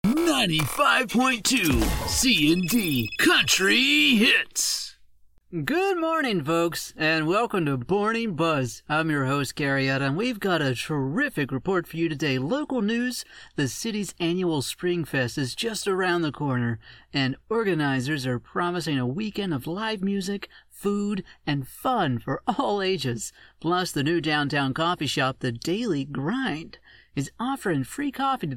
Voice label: Radio Demo